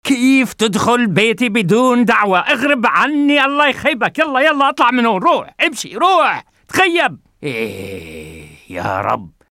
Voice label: Angry Egyptian